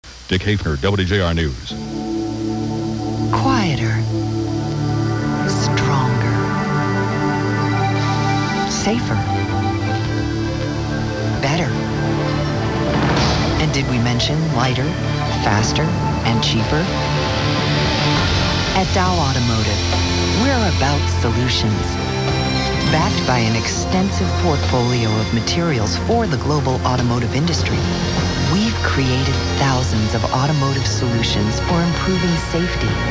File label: cquam commercial sample; wjr 760